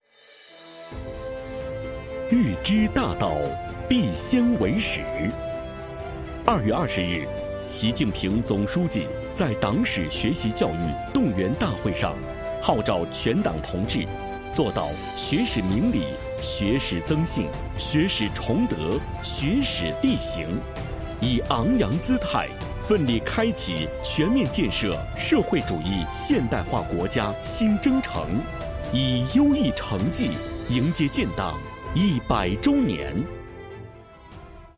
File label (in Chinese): 党史学习教育专题配音